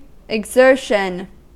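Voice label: "exertion", English, US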